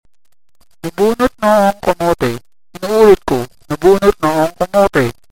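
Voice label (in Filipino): bomb defused, Tagalog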